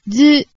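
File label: "du", Japanese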